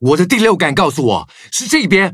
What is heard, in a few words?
upgrade, zh-hant; Cookie Run